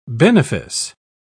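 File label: "benefice", English, British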